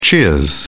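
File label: "cheers", British English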